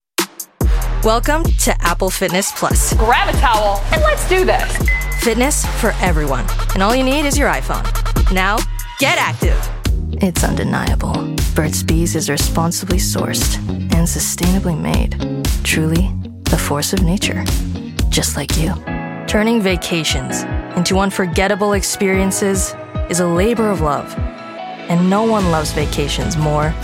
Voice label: Commercial